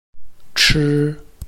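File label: "chi", Chinese, tone 1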